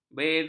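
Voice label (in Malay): "beza", Malay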